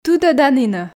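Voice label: "тыдӧданінӧ", Komi, female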